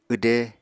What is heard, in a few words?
"wde", Apatani